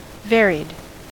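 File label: "varied", US English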